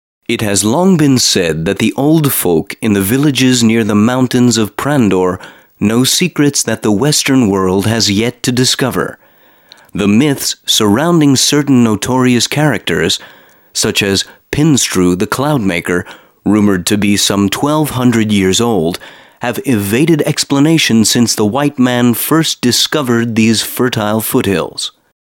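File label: male English documentary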